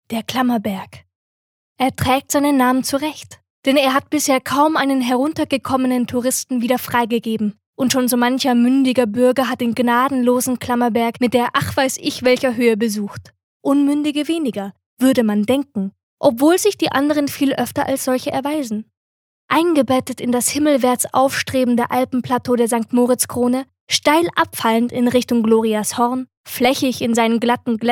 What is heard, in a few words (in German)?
Kommentar Neutral